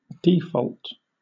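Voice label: "default", British English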